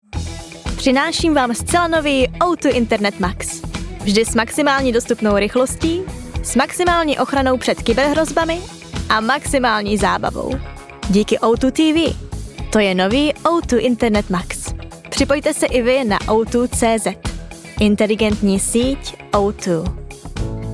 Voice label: Czech, Female, Teens-30s; Eastern European; Native Sound File

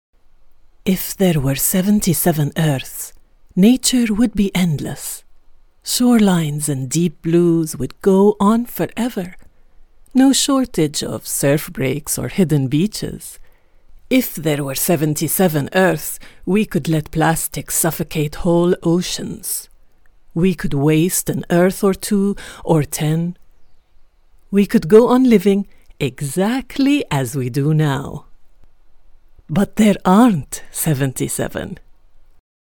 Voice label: Arabic Accented English

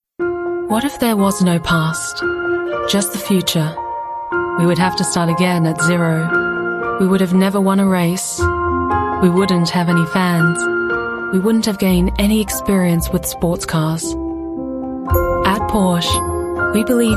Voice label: Australian

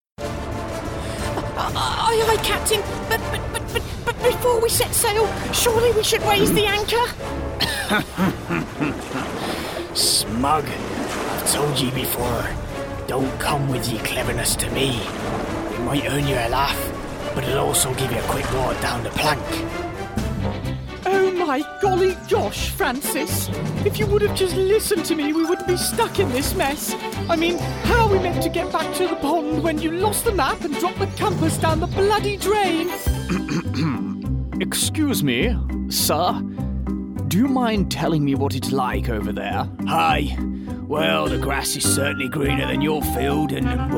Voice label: Animation

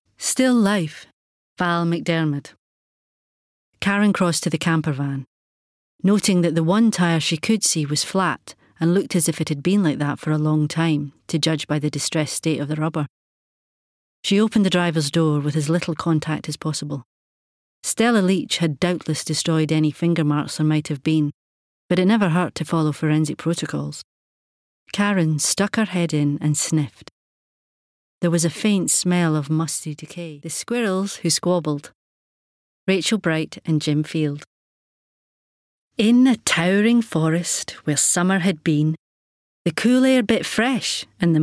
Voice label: Audiobook Extract

